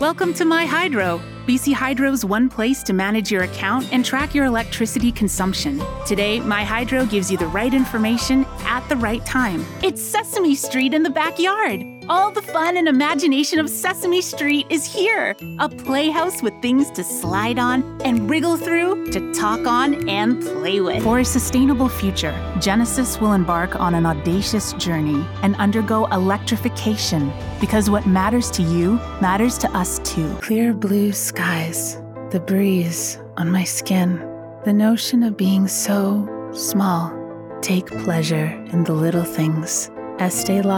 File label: Commerical